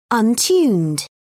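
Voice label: "untuned", English, British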